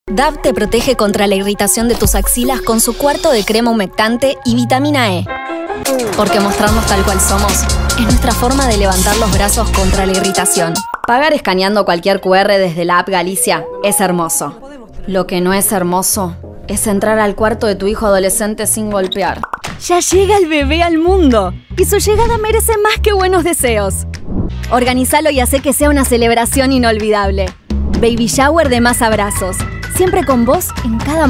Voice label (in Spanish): rioplatense